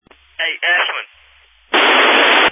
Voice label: Family Radio Service (FRS)